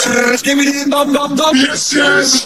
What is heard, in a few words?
megaphone; buff mutant